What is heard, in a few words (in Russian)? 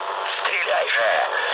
attack position, mood low, speech ru; War Thunder